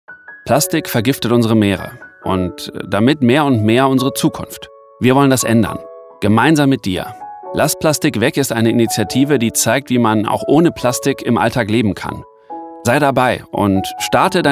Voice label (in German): erklaervideo authentisch natuerlich musik